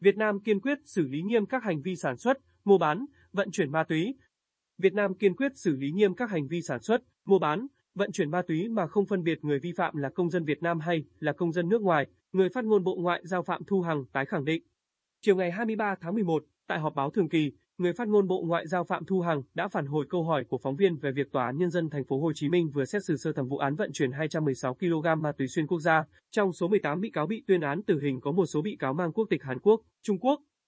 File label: north male